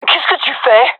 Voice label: taking fire friendly, fem; vo

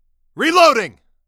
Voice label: reload, male; Half Life 2; Episode 2

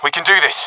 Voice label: round losing, professional; Counter Strike Model Pack; CS:GO

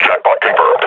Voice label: announce attack, combine grunt; alyx

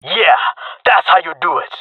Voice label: cheer, jungle male; player vo; Counter Strike Model Pack; CS:GO

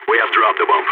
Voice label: spotted loose bomb, balkan; vo

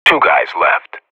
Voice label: radio bot kill count, vo professional

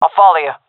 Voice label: following friend, seal fem; vo; agents; Source Model Packs; Counter Strike Model Pack; CS2

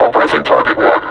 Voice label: announce attack, combine suppressor

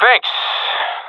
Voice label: thankful, professional; vo; Counter Strike Model Pack; CS:GO

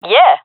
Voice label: cheer, fem; vo; gendarmerie fem epic

